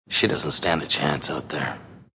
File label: Stand A Chance, Male; Talking